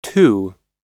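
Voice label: "too", American English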